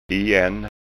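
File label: "igen", Scanian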